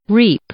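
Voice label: "reap", English, female; verb; i-ɪ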